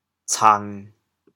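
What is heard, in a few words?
"cang1", Teochew, chaoyang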